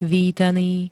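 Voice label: "vítaný", Slovak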